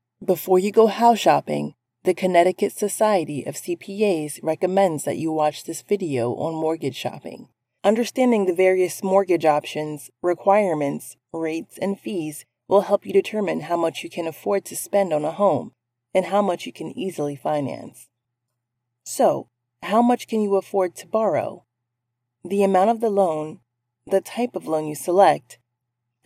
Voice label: ELEARNING; Voice over; MORTGAGE OPTIONS